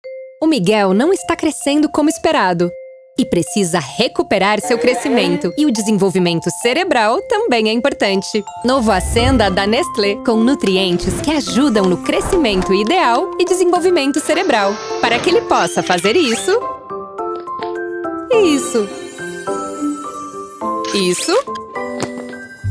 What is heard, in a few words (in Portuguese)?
locutora; ascenda nestle